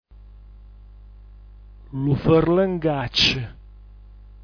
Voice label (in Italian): "lufrlgacc", dialetto giulianova